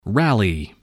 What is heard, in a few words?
"rally", english